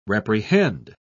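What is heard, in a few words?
"reprehend", British English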